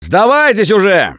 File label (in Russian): Taunt, left4dead2 russian, Survivor Voice; Gambler